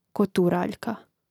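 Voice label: "koturaljka", Croatian; Mrežnik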